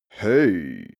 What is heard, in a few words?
male "hey" laid-back